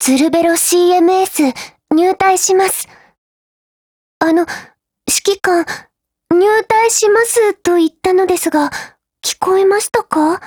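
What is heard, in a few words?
GAIN, Japanese; TCMS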